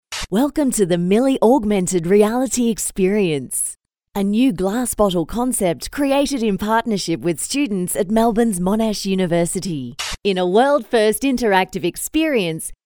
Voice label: female english australian; Devine Voices